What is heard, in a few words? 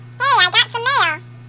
Chipmunks